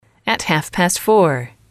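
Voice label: at half past four, female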